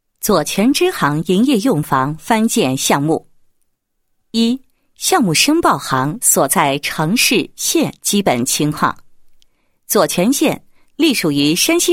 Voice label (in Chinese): 女, 项目; 左权支行营业用房翻建项目